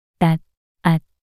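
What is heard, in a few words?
"낫앗" Korean, female; 낱소리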